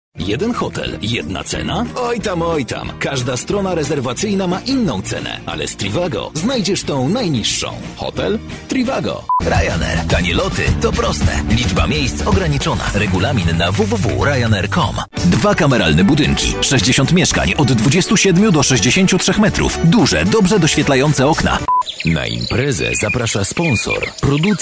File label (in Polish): Lektor; Studio Nonagram